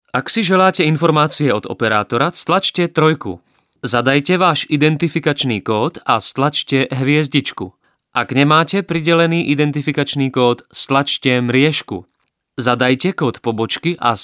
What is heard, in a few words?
male Slovak IVR